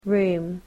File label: "room", english